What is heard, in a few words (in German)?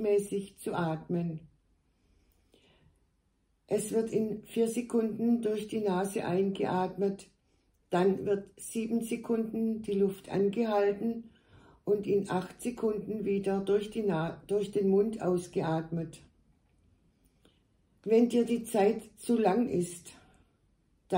Atemuebung Innere Mitte